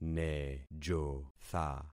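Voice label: "nejotha", concatenated syllables; Affixing experiment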